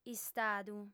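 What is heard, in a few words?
"istadu", female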